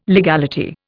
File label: "legality", Indian